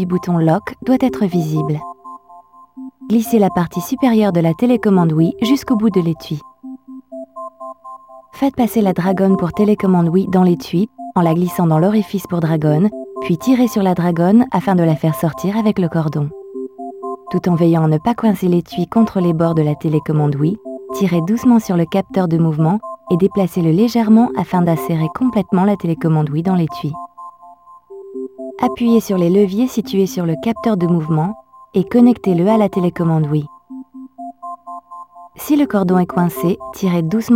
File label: Connecting Motion Plus (French); Skyward Sword Original Soundtrack